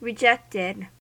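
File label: "rejected", American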